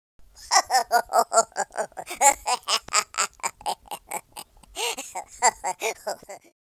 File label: happy, Russki